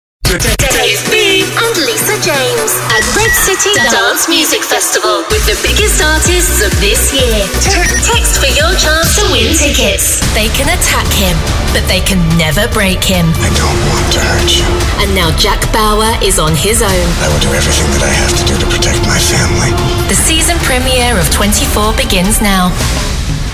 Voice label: Promo Mix

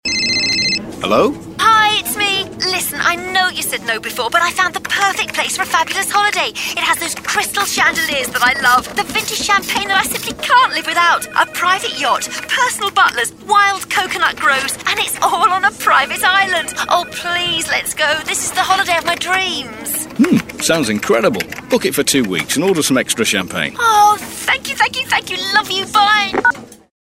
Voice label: Commercial; Vocal Point

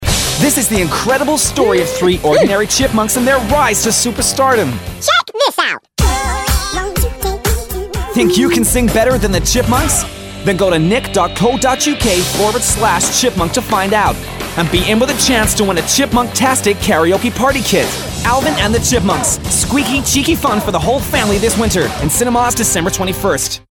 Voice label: Chipmunks American

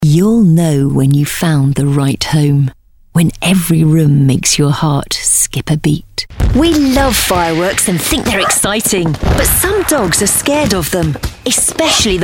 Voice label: Commercials

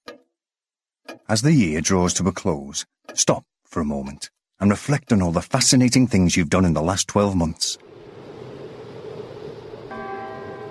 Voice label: Commercial; Eurostar

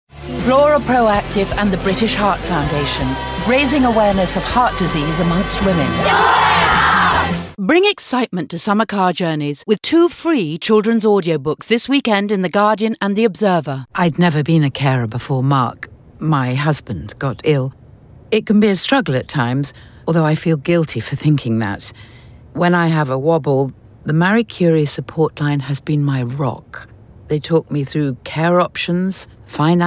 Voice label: Commercials

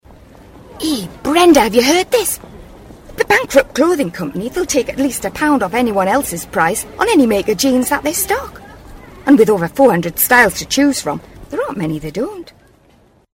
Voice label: Commercial; Clothing co

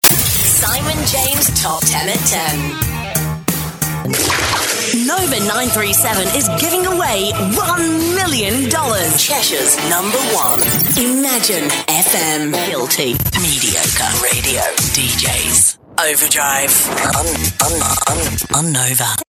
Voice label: Imaging; Vocal Point

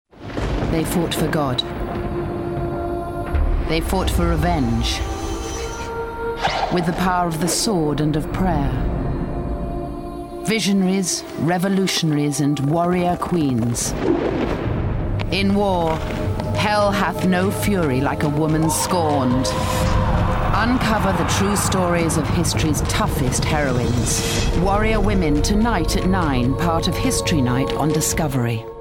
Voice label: Commercial; Discovery trails; Vocal Point

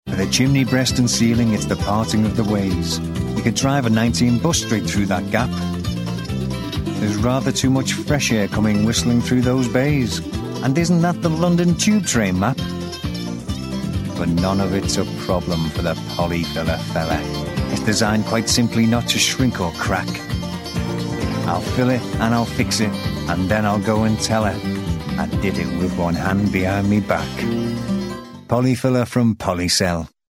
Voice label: Commercial; Polyfilla; Vocal Point

